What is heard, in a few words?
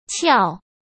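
"撬", Mandarin Chinese; chinese-flashcards; hsk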